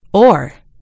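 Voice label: "oar", American English; gcompris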